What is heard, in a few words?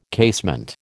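"casement", American English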